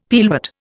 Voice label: "pearlwort", Indian; Dictionary